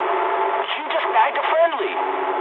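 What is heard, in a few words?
English player killed ally mood med; War Thunder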